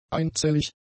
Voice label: "einzellig", german; adjectives

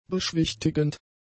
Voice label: "beschwichtigend", German; adjectives

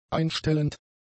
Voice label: "einstellend", german; adjectives

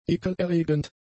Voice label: "ekelerregend", german; adjectives

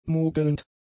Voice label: "mogelnd", German; adjectives

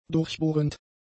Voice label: "durchbohrend", German; adjectives

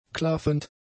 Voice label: "klaffend", German; Adjektiv; adjectives

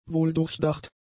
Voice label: "wohldurchdacht", German; adjectives

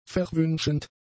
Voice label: "verwünschend", German; adjectives

